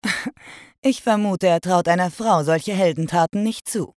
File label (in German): Sprecherin keck; Alt Stimme